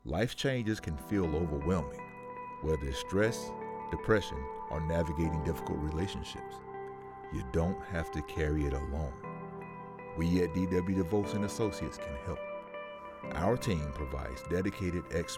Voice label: counseling ad